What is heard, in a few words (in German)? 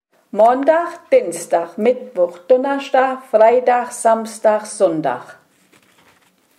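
wochentage, O-Ton Hunsrück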